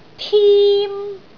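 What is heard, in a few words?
"tim1", Cantonese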